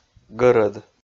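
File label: "гӧрӧд", Komi, male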